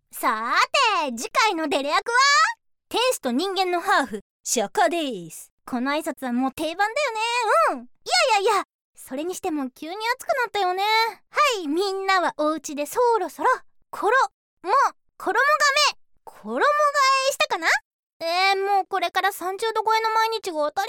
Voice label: 次回予告 BGMなし

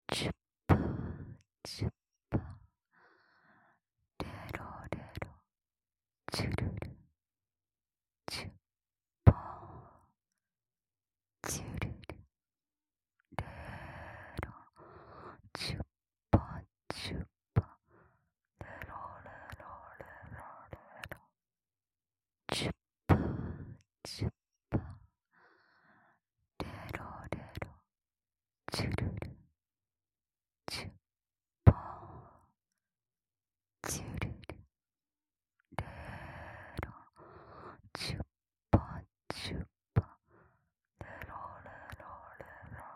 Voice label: オノマトペ 素材 ちゅるる ちゅっぱぁ

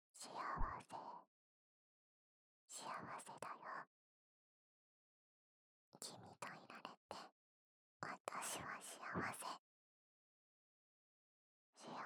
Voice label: 囁き 左耳 幸せ; 左耳ver

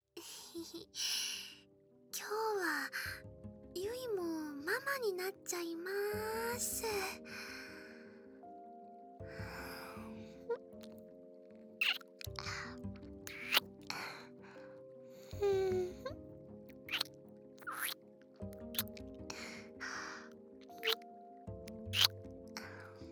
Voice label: 添い寝（癒しBGMループ視聴向き･右に反転）; ゆいママ

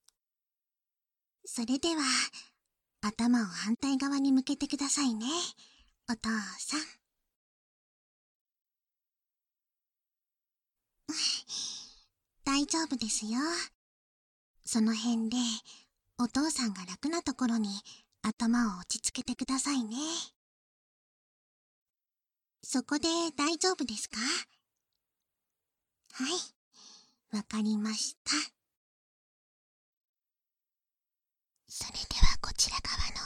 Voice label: 耳かき左（囁き重視編集 効果音なし）

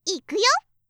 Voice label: いくよ！, 掛け声

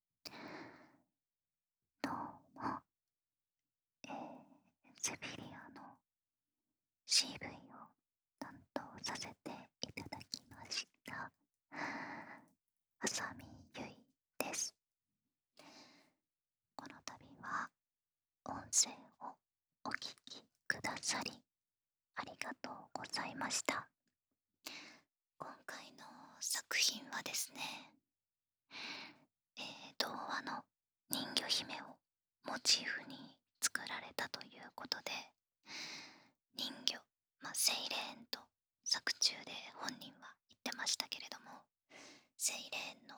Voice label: フリートーク, ASMR

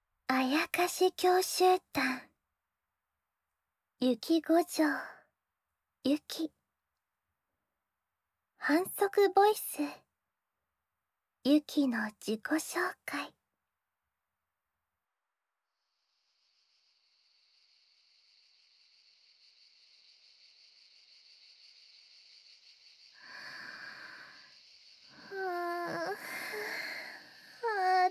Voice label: 自己紹介, ASMR

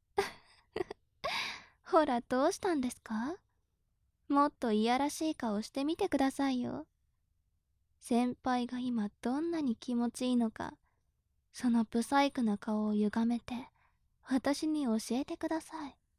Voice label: 調教中音声, ASMR

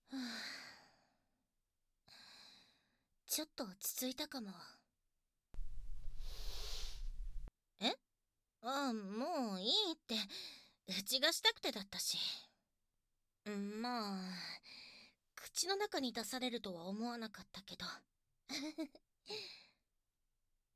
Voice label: キス＆乳首責めされる女装男子 効果音入り

